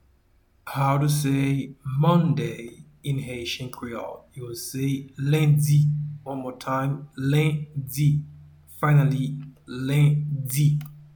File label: "Lendi", Haitian Creole; “Monday” is translated as “Lendi” in Haitian Creole